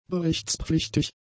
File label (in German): "berichtspflichtig", German; adjectives